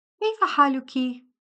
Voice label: Arabic How are you; Greetings

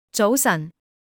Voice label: Cantonese Good morning; Greetings

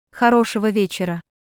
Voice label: Russian Have a good evening; Greetings

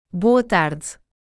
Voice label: Portuguese Good afternoon; Greetings

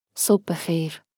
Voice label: Farsi Good morning; Greetings

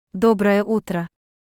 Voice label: Russian Good morning; Greetings

